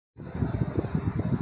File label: Voice Note